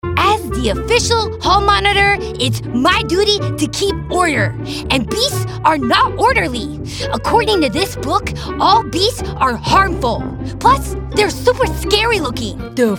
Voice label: Animation